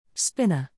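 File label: "spinner", English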